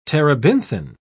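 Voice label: "terebinthine", British English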